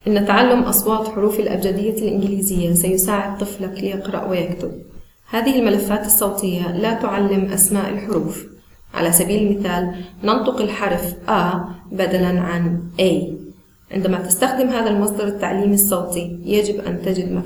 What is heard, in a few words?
arabic sounds introduction